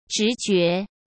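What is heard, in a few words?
"直觉", Mandarin Chinese; chinese-flashcards; hsk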